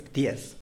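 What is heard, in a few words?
"ptiez", Tlacochahuaya Zapotec; nest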